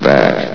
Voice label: "back", vox; Source Engine; bshift

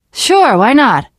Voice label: generic responses, teen girl, voice; source engine; left 4 dead 2; survivor

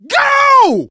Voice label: emphatic go, survivor voice, manager

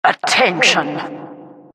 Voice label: announcer attention

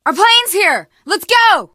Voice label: arrive plane initial, teen girl, survivor voice; source engine; left 4 dead 2

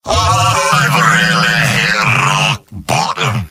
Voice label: jeers; demoman; video games; source engine; tf; mvm

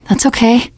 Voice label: you are welcome, teen girl; voice; source engine; left 4 dead 2; survivor

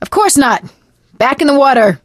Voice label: bridge collapse, survivor voice; video game; source engine; left 4 dead 2; producer

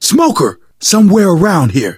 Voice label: heard smoker, survivor voice; source engine; left 4 dead 2; manager

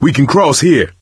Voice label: misc directional, coach, survivor voice

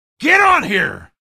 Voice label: get aboard, male; video games; npc; source engine; episodic; episode 1

